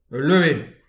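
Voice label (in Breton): "ul leue", Breton; petit precis de prononciation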